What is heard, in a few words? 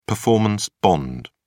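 "performance bond", British English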